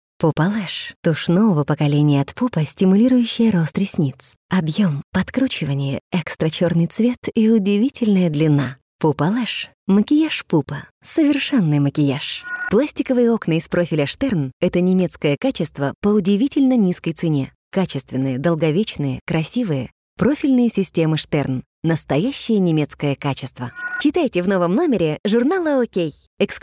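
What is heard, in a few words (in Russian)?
advert, Мужчина, Молодой, Русский, Звонкий, Высокий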